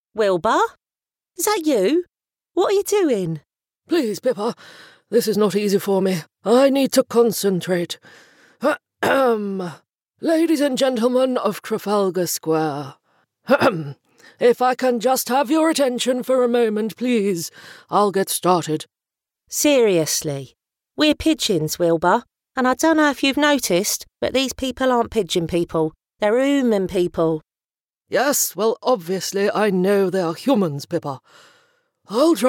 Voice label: character

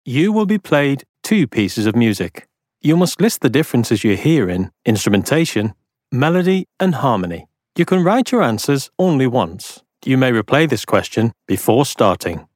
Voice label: elearning

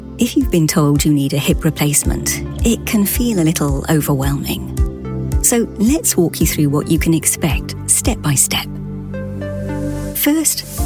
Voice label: medical narration

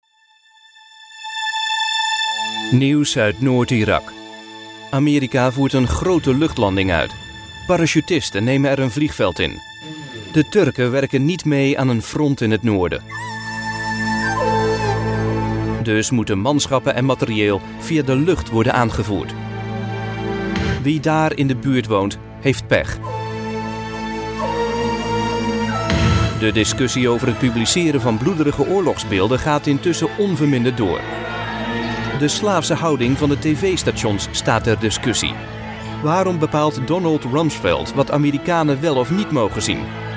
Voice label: dutch voice documentary

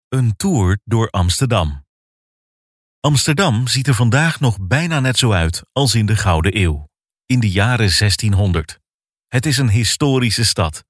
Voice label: Dutch male audio guide

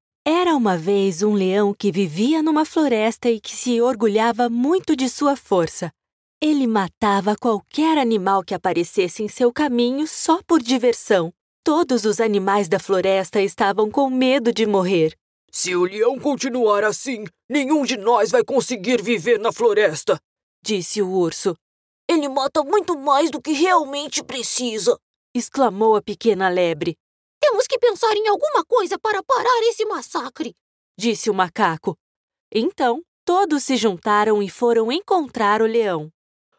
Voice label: brazilian portuguese voiceover audiobook, Female

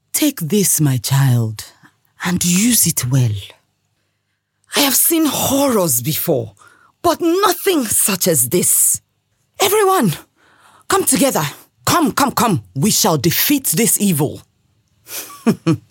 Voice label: nigerian voiceover character

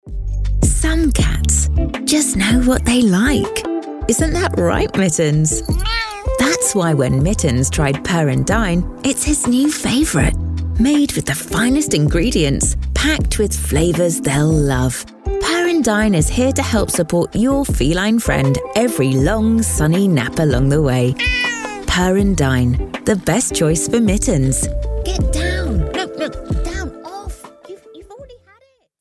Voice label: friendly bright read

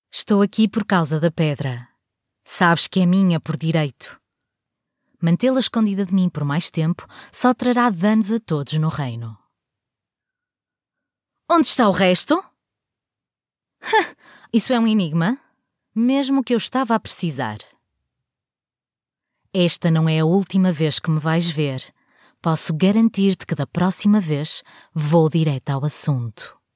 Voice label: portuguese female videogame

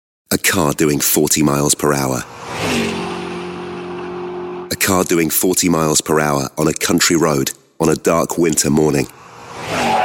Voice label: natural, voiceover

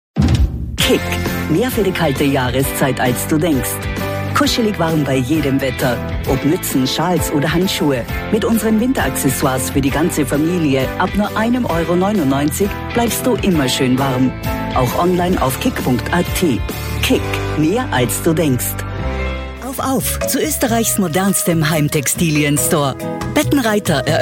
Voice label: austrian female commercial

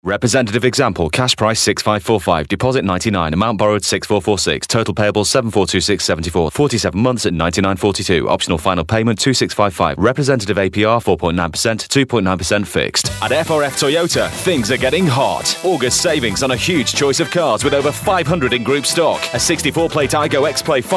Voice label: energetic commercial; toyota

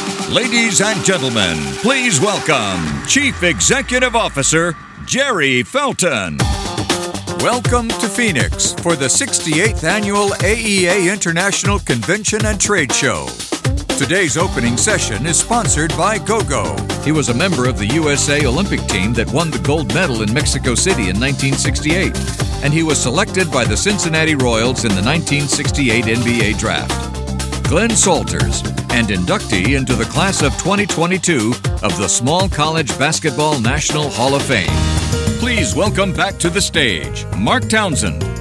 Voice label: voice of god, Bi-lingual Latin American Male

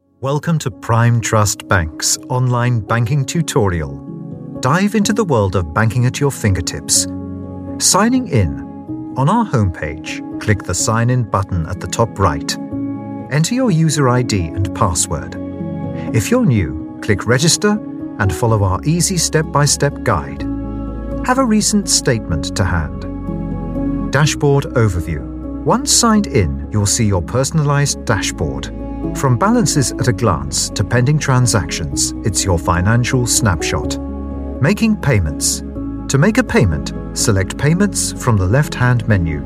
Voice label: corporate

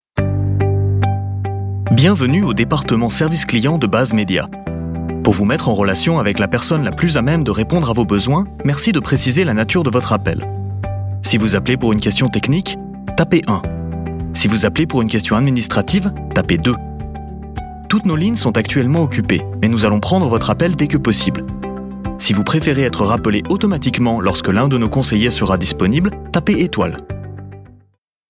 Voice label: french male telephone

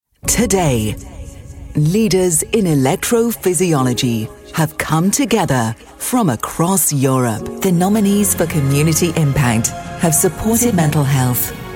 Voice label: voice of god events showreel

